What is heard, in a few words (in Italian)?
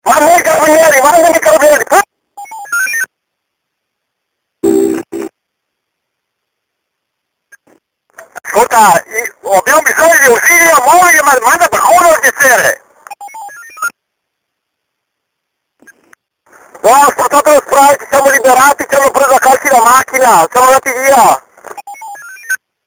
radio